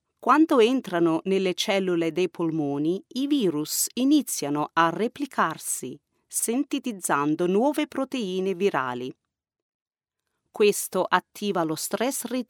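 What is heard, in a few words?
Italian, female, voice over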